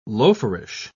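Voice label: "loaferish", British English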